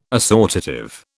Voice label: "assortative", British